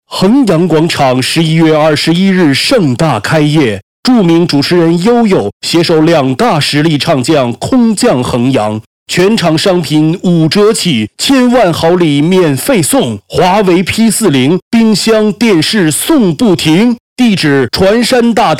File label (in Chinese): 开业广告; 弘阳广场